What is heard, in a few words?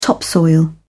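"topsoil", British English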